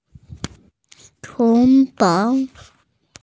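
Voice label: "kitchen", Mandarin, hearing-impaired; chu2fang2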